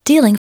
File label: DEALING, Baccarat, Voice Over; TABLEGAMES